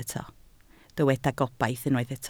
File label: "gobaith", welsh